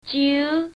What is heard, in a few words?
shanghainese, numbers